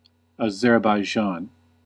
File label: "Azerbaijan", en-us